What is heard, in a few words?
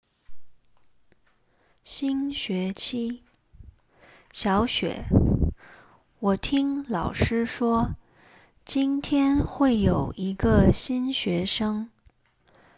conversation, mandarin; mandarin lesson materials